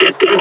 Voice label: announce attack grenade; combine charger; Half Life Alyx